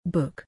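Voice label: "book", English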